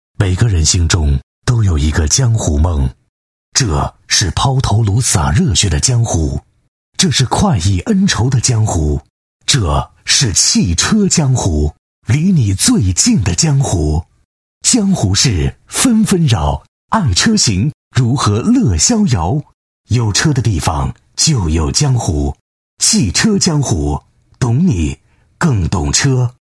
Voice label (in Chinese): 男 片头 大气 稳重, 国语男声配音; 汽车江湖